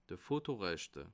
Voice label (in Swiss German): "fotorechte", alsace